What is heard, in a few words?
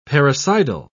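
"parricidal", British English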